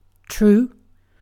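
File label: "true", British English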